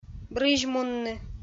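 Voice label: "брызьмунны", Komi-Zyrian, female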